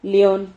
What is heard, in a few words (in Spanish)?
"lion", Silabas